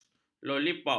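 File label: "lolipop", Malay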